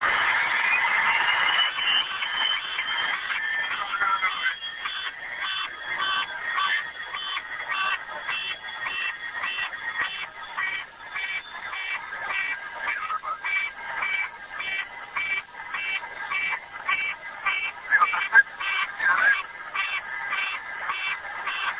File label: airline blackbox recordings; lapa